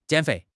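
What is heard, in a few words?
"jiǎn féi", Chinese; HSK4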